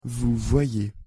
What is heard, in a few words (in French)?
pronunciation fr "vous voyez"